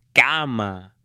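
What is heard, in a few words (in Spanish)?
"CAMA", hombre; MUEBLES; AppLenguaje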